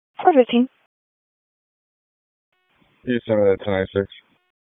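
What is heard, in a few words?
Raleigh Police Southeast District Dispatch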